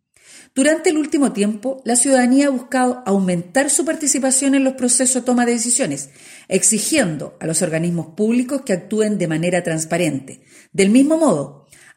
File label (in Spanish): cuña e-learning; Seremi Gobierno